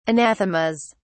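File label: "anathemas", English, British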